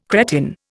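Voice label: "cretin", Indian English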